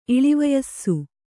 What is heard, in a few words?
"ಇಳಿವಯಸ್ಸು", Kannada